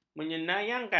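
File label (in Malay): "menyenangkan", Malay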